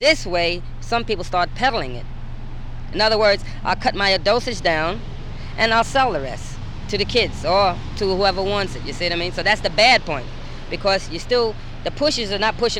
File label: New York interviews